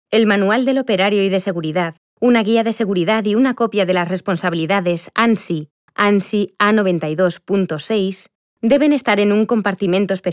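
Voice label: Spanish Spain, training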